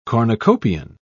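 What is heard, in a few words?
"cornucopian", British English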